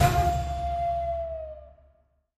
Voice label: announce; The Golden City